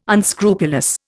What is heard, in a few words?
"unscrupulous", Indian